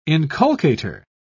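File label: "inculcator", English, British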